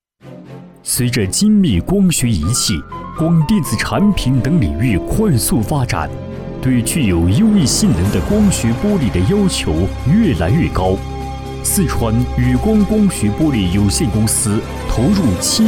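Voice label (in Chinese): 企业广告商务大气男声; 宇光玻璃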